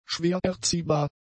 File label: "schwererziehbar", German; adjectives